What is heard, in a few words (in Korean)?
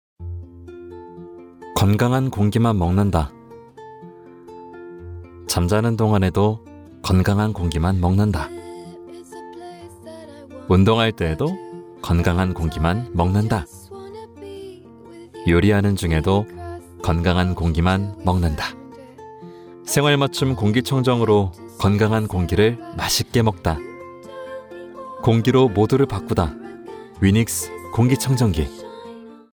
광고 생활가전 다정한 건강함